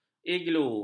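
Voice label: "iglu", Malay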